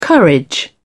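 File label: "courage", British English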